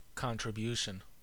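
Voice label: "contribution", US English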